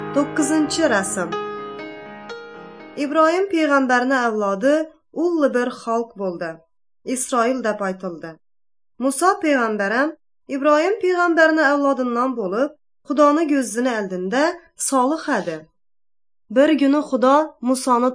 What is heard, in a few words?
uzbek northern kipchak; good news